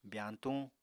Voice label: "byañtuñ", Apatani